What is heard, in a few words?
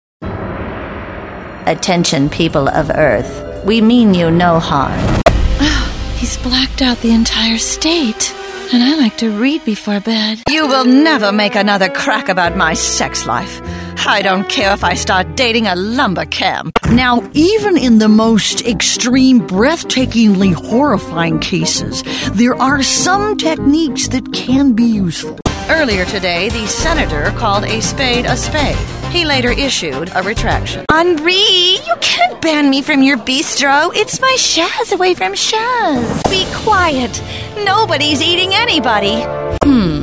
animation demo